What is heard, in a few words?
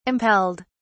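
"impelled", British English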